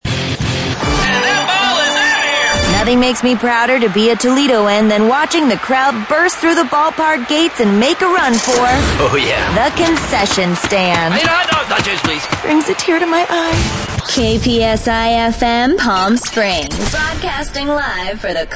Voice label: Radio Imaging

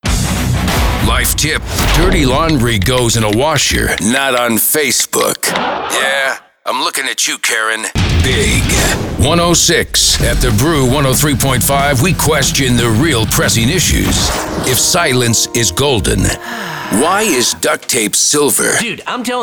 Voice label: ROCK VO

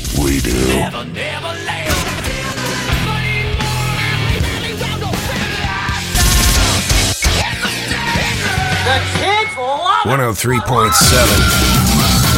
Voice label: General Rock Sports News CHR

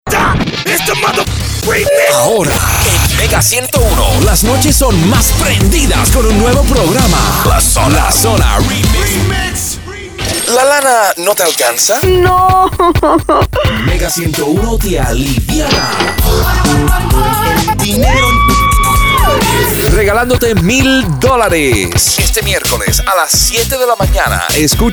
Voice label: VO SPANISH

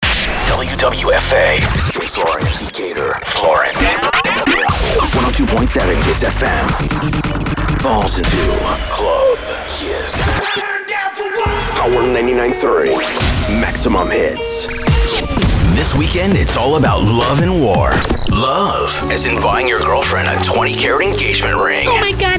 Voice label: Mainstream CHR

